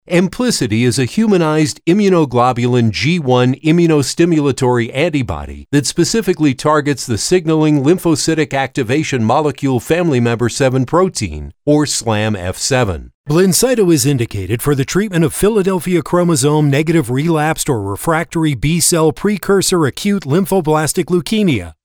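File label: medical narration, male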